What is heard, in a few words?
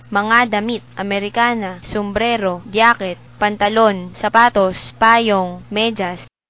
filipino; clothes